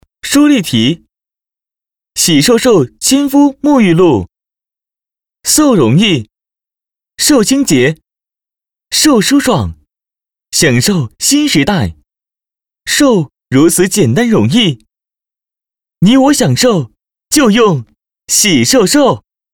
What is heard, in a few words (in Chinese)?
广告 美妆; 舒丽缇美妆